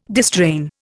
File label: "distrain", Indian